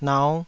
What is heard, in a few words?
"nau5", taiwanese